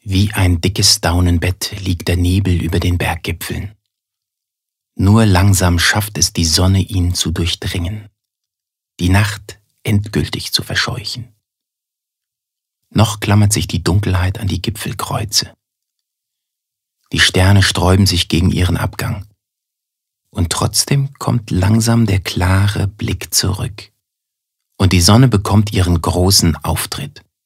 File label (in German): Schauspiel Sanft